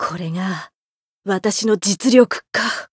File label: voice jp